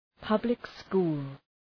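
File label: "public school", English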